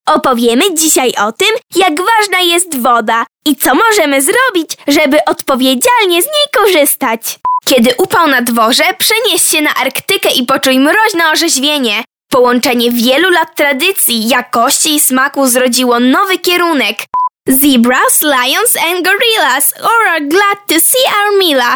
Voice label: reklama, young fresh Polish female voice; Polish female voice artist, 20-30; Fresh, natural voice; IVR, commercial